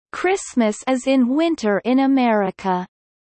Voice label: low